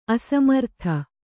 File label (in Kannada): "ಅಸಮರ್ಥ", Kannada